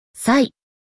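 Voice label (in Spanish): "rinoceronte", español; Relaciona Palabras en Ingles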